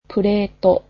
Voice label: "plate", Japanese